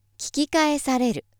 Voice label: female